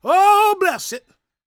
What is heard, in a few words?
preachin, gospel preacher